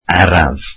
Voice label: "slowly", Welsh

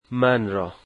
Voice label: "me" Dari

